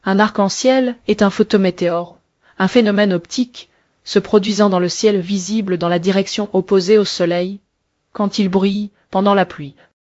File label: speaker, fr FR; piper voices